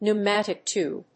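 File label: "pneumatic tube", English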